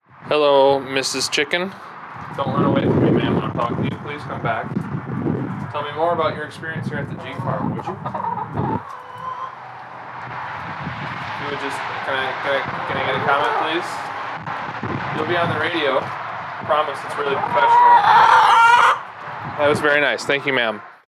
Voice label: Interview